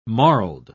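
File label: "marled", British English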